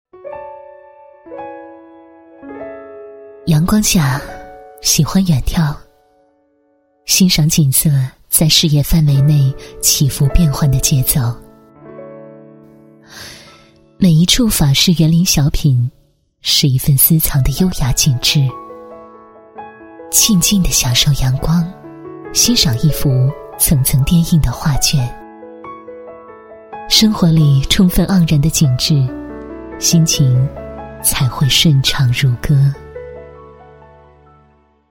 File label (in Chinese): 女 地产类, 国语女声配音; 优雅配音; 华润地产